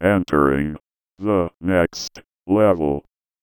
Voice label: VOICEFX ELCTR 140; Techno2